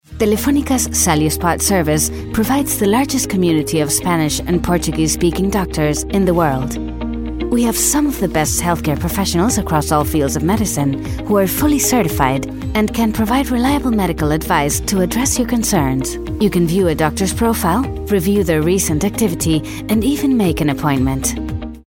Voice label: spanish english

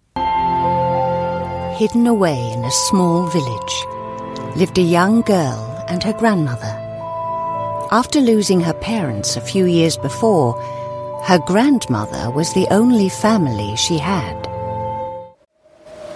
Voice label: narration for ya children

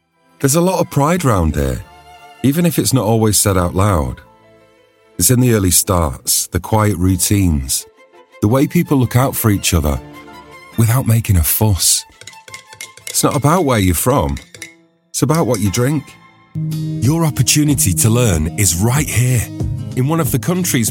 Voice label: northern

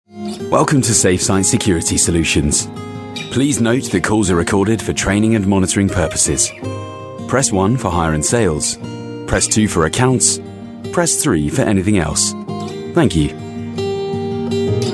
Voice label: telephone IVR, friendly boy next door

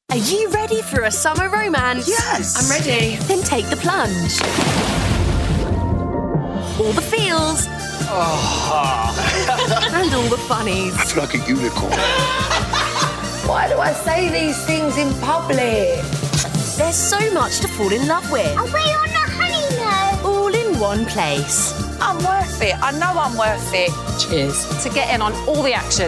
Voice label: Authoritative Young Adult Voice, continuity radio

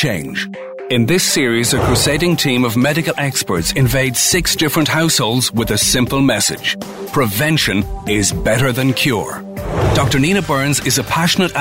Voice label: narration, Irish Deep Smooth Voice

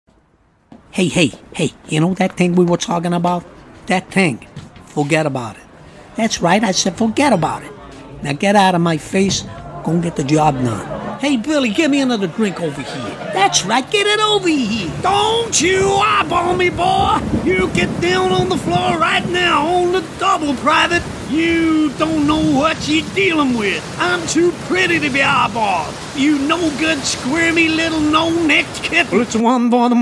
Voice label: liverpudlian voice character

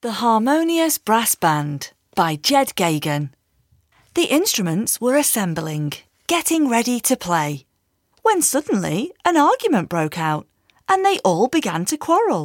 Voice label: audiobook, Fresh Northern Voiceover

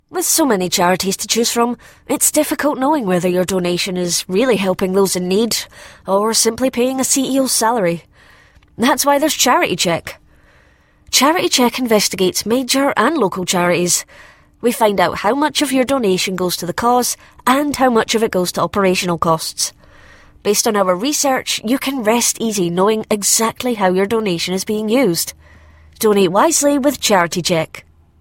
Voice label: scottish compassionate charity

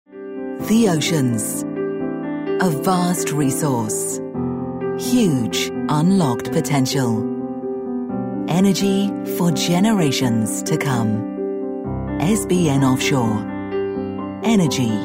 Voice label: documentary

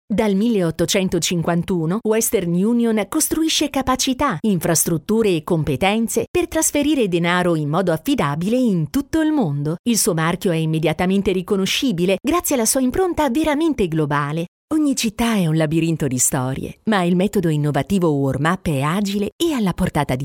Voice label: italian voiceover corporate

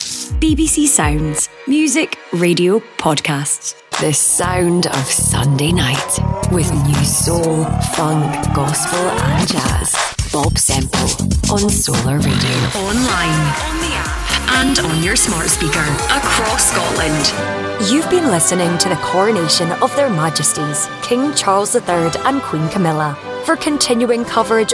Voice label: imaging

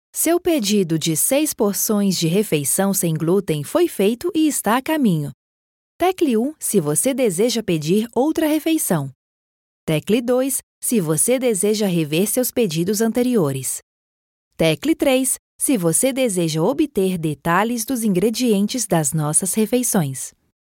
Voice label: brazilian voice telephone

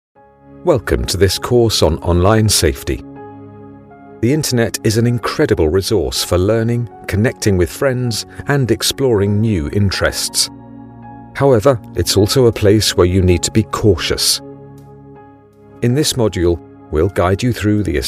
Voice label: elearning, Documentary Narrator Voice

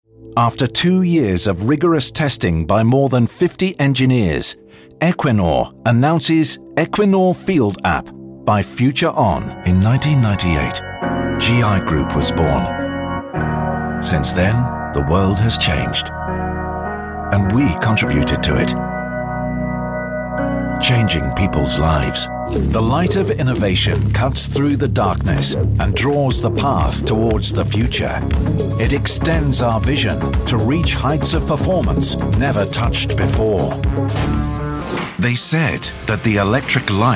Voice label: corporate

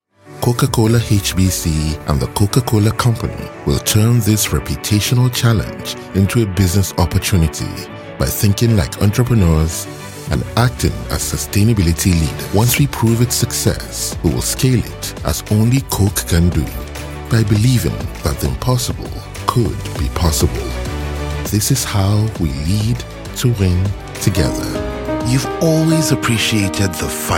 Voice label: nigerian voice corporate

